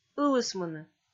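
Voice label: "ылысмыны", Komi, female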